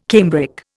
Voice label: "cambric", Indian

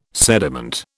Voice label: "sediment", British

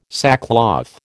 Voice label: "sackcloth", American

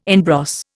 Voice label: "en brosse", Indian

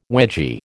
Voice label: "wedgie", American

